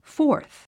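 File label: "fourth", English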